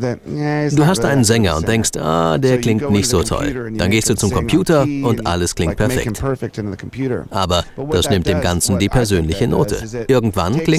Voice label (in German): o-ton tonband